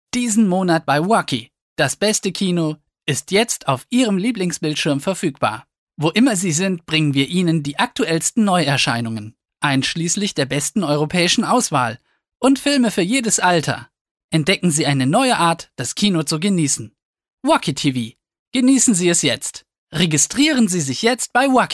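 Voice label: German, male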